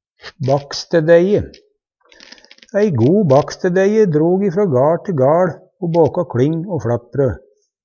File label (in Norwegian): "bakstedeie", Numedalsmål; Substantiv hokjønn; Ei go bakstedeie drog frå gaL til gaL o båka kling o flatbrø.